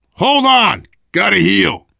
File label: cover me, voice, biker; Left 4 Dead 2; left4dead2 dlc3; survivor